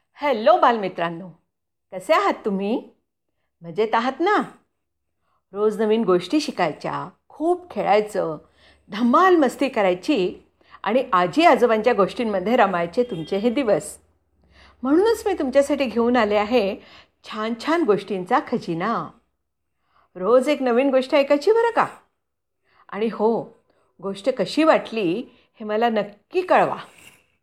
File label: sambodhan marathi; welcome